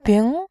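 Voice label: "bing", Mandarin Chinese, tone 2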